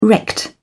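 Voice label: "wrecked", English, British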